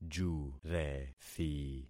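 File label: "jurethi", concatenated syllables; Affixing experiment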